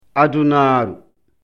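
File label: "adunaaru", Fula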